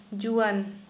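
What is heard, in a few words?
Santali female young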